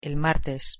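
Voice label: pronunciation el martes, Spanish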